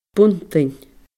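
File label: "buntainn", Scottish Gaelic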